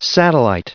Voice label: "satellite", en-us